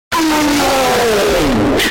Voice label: get out mega slowed